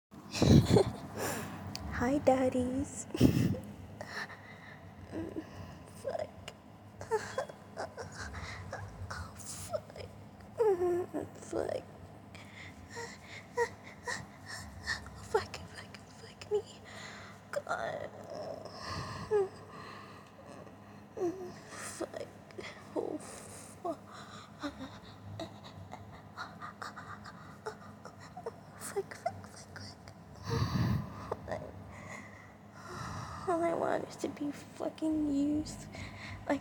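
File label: Female, music in background; F4M; All alone, and neddy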